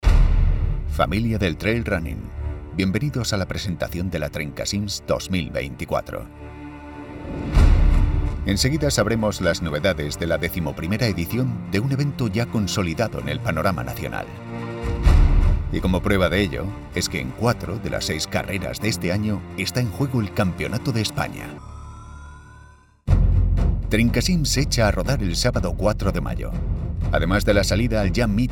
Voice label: Explainer Videos, deep, trustworthy, confident Male Voice; Trail Running Event; Publicidad; Trencacims2024